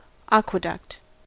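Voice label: "aqueduct", US English; pronunciations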